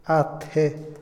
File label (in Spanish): sacar agua, Otomí, hombre; INALI